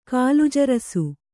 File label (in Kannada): "ಕಾಲುಜರಸು", Kannada; kālujarasu